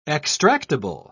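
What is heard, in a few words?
"extractible", British English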